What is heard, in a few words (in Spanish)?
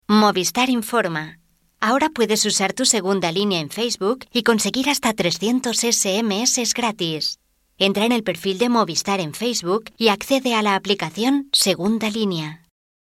narración